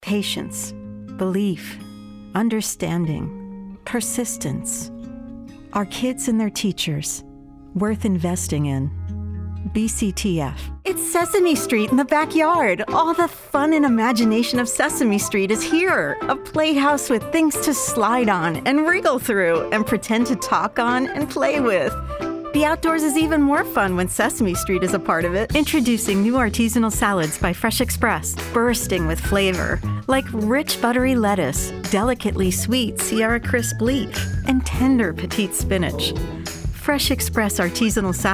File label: commercial